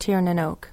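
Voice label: "Tir na nOg", Irish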